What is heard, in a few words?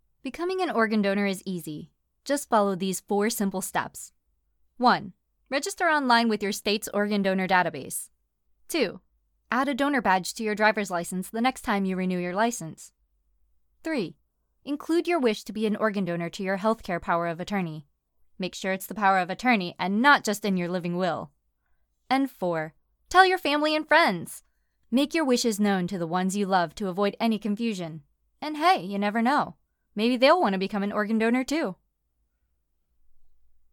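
Narration Conversational Friendly PSA, Female; Organ Donor; American English voice-over artist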